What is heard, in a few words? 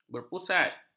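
"berpusat", Malay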